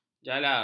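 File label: "jalar", Malay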